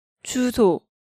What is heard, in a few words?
"juso", Korean